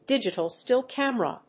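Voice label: "digital still camera", English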